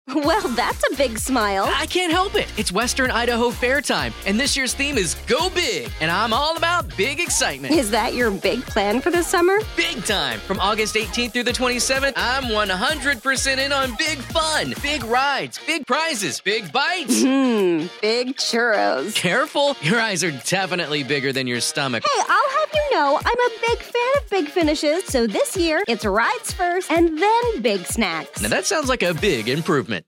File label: radio spot